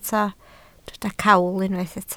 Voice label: "cawl", Welsh